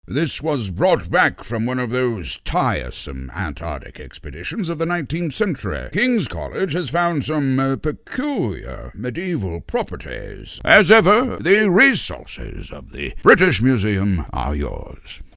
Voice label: Professor, British, Stuffy; animation